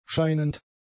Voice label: "scheinend", german; adjectives

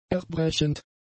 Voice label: "erbrechend", German; adjectives

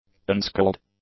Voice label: "unscaled", english; adjectives

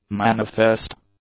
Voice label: "manifest", English; adjectives

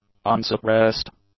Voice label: "unsuppressed", english; adjectives

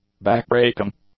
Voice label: "backbreaking", English; adjectives

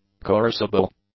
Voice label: "coercible", English; adjectives

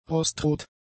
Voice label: "rostrot", German; adjectives

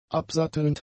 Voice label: "absattelnd", German; adjectives

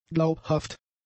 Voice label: "glaubhaft", German; adjectives

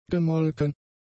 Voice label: "gemolken", German; adjectives

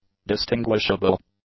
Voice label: "distinguishable", English; adjectives

